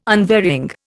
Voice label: "unvarying", Indian